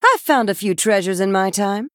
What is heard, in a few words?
Female Human